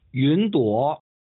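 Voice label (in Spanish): "云朵", chino mandarin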